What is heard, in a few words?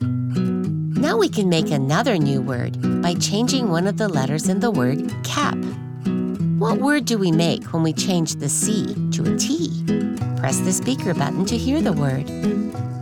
Spot Kindergarten